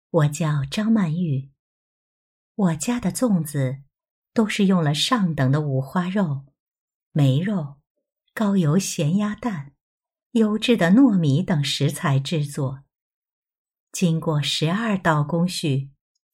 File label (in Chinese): 短视频女播余音绕梁